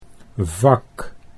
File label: "vak", Dutch